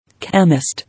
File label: "Chemist", English